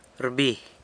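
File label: "rbi", San Lucas Quiaviní Zapotec; sits down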